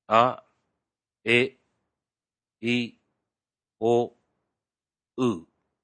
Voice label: Long Vowels, Maori; Maori Students